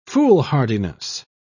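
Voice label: "foolhardiness", British English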